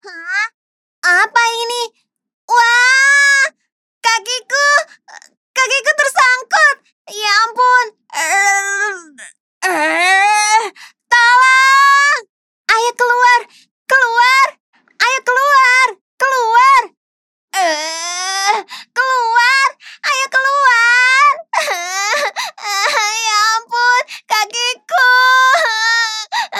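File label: character Indonesia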